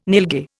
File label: "nilgai", Indian